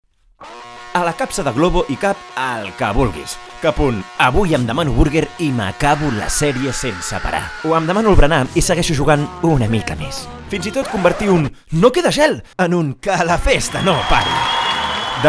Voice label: Commercial Catalan; globo